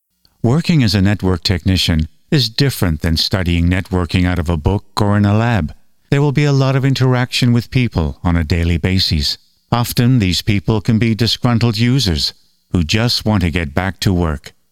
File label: Network Technician E-Learning